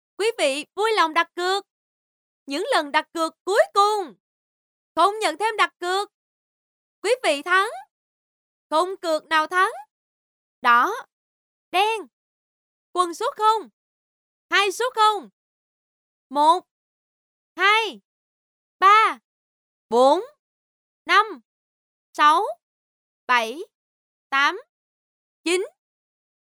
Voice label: vietnamese female